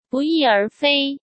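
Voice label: "不翼而飞", Mandarin Chinese; chinese flashcards; hsk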